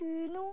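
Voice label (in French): "euh non", French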